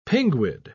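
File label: "pinguid", British English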